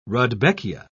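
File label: "rudbeckia", English, British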